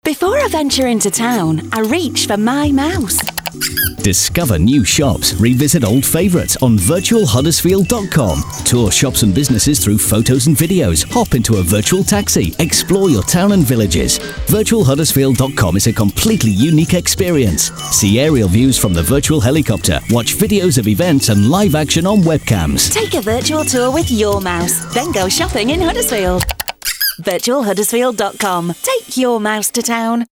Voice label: radio advert; Take your mouse to town...eeek!; Virtual Huddersfield